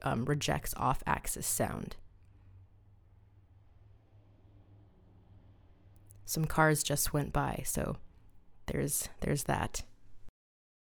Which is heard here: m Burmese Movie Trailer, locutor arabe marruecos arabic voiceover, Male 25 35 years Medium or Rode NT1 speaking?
Rode NT1 speaking